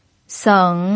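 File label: "seng" Mandarin Chinese, third tone, pinyin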